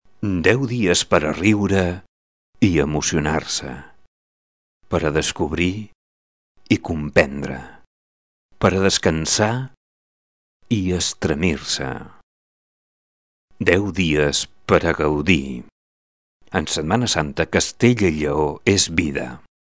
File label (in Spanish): doblaje spot television catalan; Dubbing for tv. Promotion for Castilla León´s Easter. Catalán voice; Semana Santa; Catalán voice of "Magín"